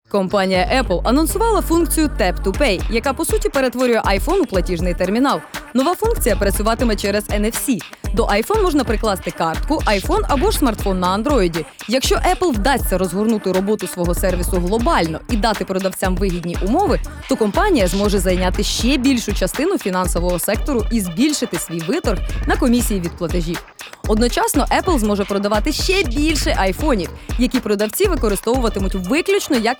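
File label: ukrainian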